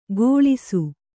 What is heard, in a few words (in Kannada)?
"ಘೋಳಿಸು", Kannada